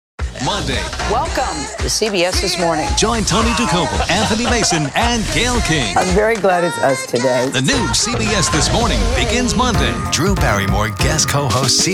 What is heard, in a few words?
TV PROMO